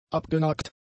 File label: "abgenagt", German; adjectives